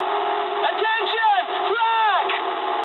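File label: damaged anti-aircraft mood medium, speech English; War Thunder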